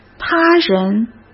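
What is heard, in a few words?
"他人", Mandarin Chinese; chinese-flashcards; hsk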